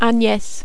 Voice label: "Agnes", French